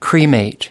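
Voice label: "cremate", US English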